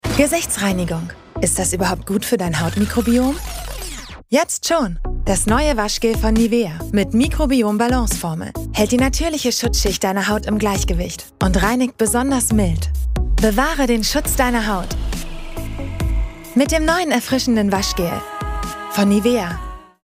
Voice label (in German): TvWerbung; Nivea; Waschgel